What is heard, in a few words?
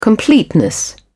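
"completeness", British English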